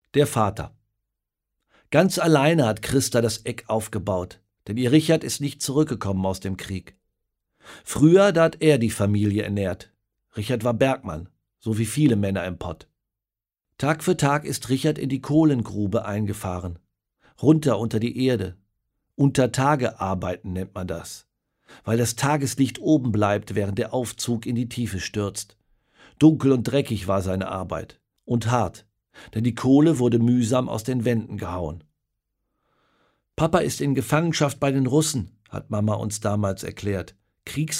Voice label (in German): Hoerbuch, in einfacher Sprache; AktionMensch; Wunder von Bern